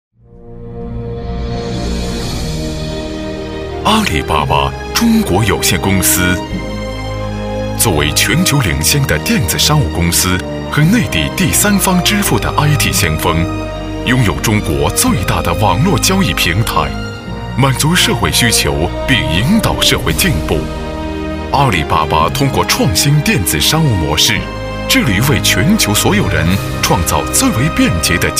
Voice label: 专题 颁奖, 男国

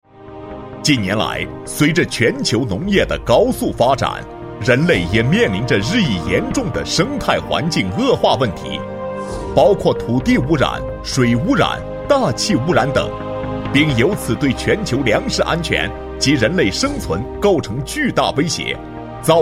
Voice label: 男国 城市规划大屏智慧农业展厅 自然; 产品

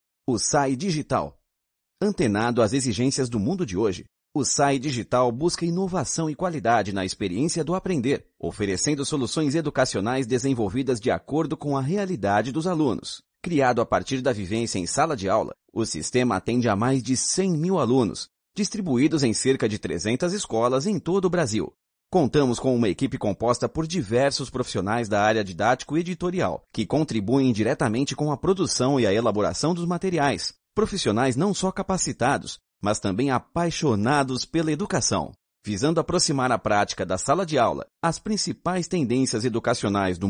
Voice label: 葡萄牙语男 外语 小语种

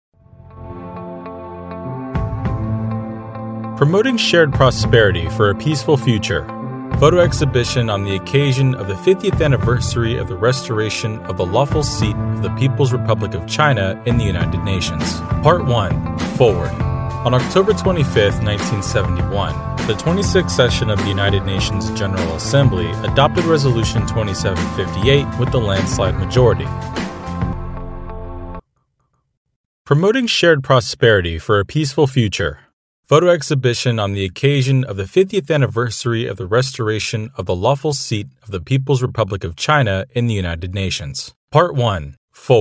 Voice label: 外籍男英 外语 美式英语 中年沉稳 沉稳

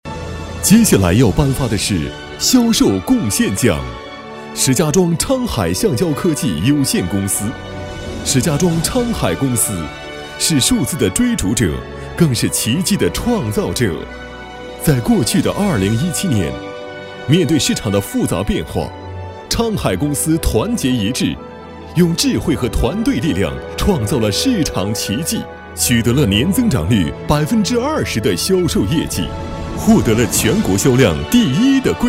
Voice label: 专题 颁奖 年会颁奖, 男

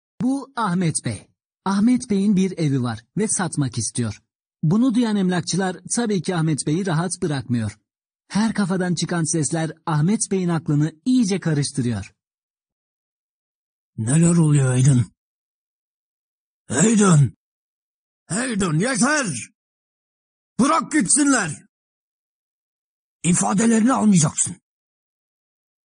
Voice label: 土耳其语男 外语 小语种 样音

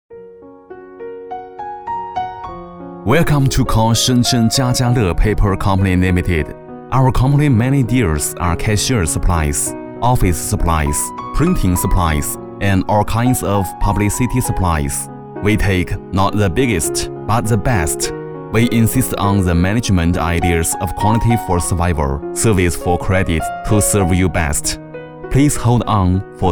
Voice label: 男国 彩铃 英文彩铃; 深圳; 深圳家家乐集团英文彩铃

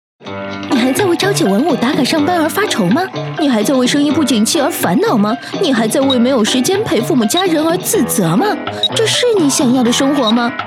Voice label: 宣传片, 女; 病毒; 美业共享平台

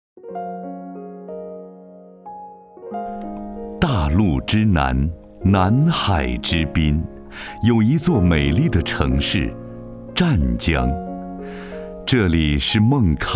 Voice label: 男国 专题 学校 稳重; 红舞鞋教育培训

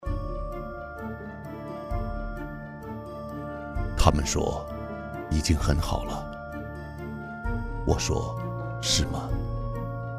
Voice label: 广告 温暖; 互联网; 爱普生

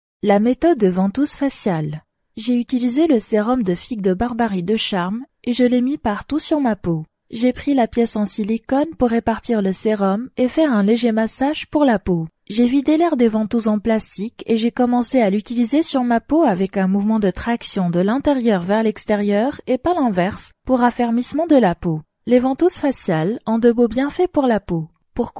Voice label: 法语女 外语; 小语种

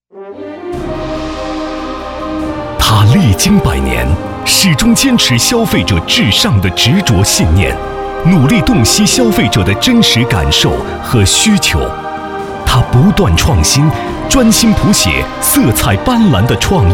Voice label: 男国 宣传片 企业 大气; 宝洁

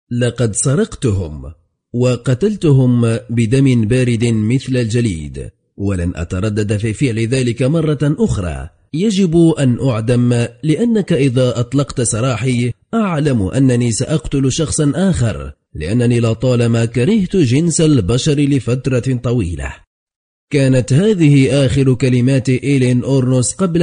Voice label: 阿拉伯语男 外语 小语种 稳重

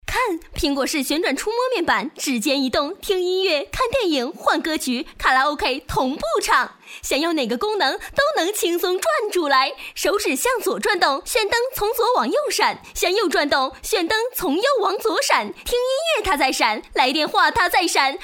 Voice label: 女 广告 电购; 苹果

